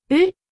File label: Phoneme Female; Commonscript; Lynguistykon